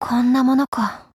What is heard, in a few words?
Voice JP; Vector